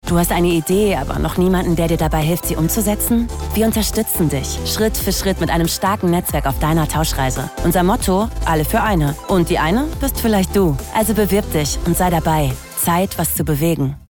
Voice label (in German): Werbung; Commerzbank